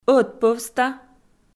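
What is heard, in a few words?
"ӧтпӧвста" Komi, female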